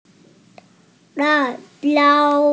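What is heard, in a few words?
"chili", Mandarin Chinese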